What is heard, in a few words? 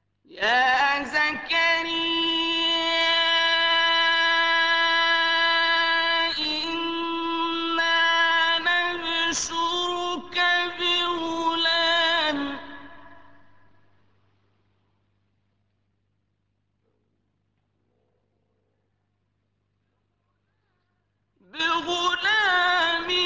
hamzah, Qiraat; quran